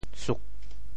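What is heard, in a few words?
"suk4", Teochew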